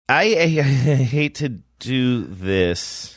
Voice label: saying i hate to do this tentative unsure